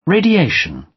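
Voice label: "radiation", British; Space, the planets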